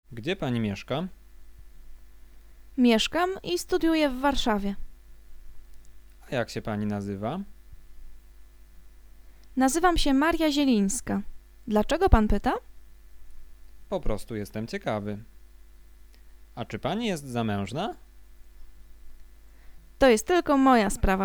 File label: conversation; lektorek; firstyear